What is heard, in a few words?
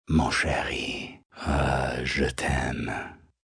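I Love You French M, Male, French; Talking Sound Effects; Sound Effects:Voice; VoiceBox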